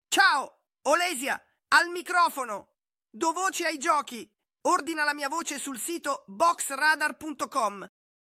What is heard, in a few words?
games, italian voiceover; italian voiceover artist